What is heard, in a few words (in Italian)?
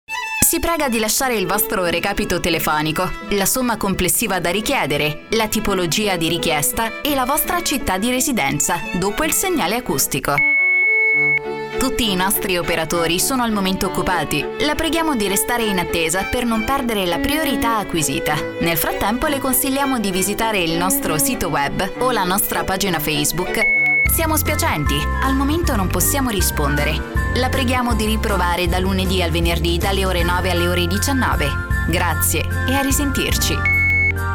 Segreteria telefonica